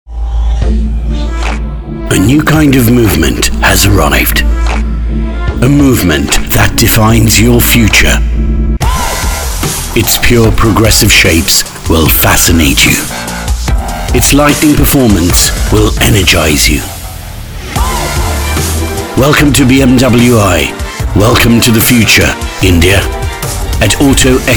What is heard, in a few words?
Male British Accent; BMW SPOT